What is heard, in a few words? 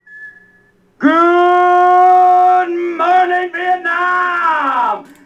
Recording, mic; streamplify